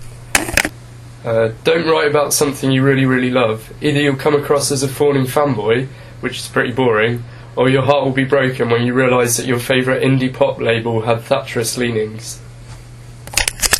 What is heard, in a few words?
student voice